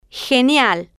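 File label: "genial", Spanish, female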